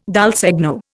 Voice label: "dal segno", Indian